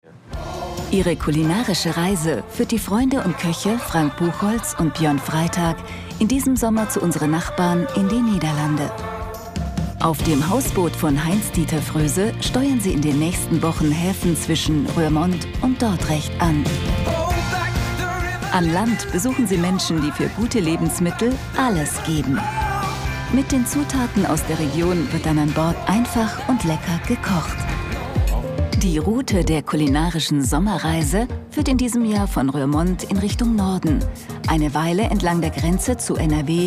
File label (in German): Doku; Lecker an Bord; WDR